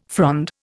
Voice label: "frond", Indian